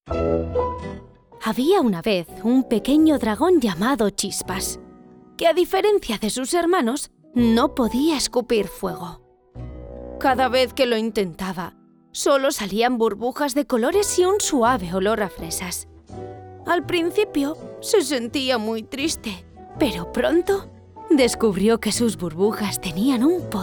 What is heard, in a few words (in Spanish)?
Narrativo Castellano